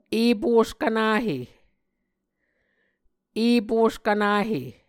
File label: "eposkvnahe", Muscogee